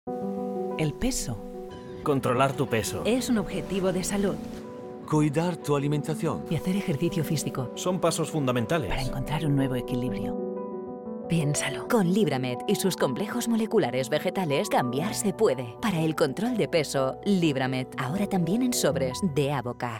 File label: Spanish TV Commercial; Libramed